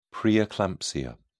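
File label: "preeclampsia", English, British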